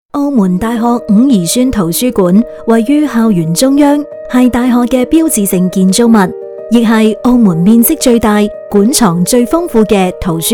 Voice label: cantonese; 圖書館; Biblioteca Wu Yee Sun da UM (E2)